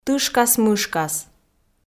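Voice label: "тышкас-мышкас", Komi, female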